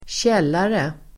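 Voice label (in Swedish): "källare", Swedish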